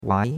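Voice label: "wai2", Chinese; Cours-de-Chinois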